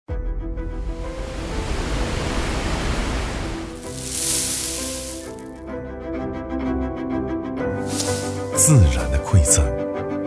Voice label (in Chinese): 男 TVC; 十六代-天青酒